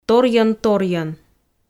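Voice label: "торйӧн-торйӧн", Komi, female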